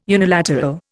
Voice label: "unilateral", Indian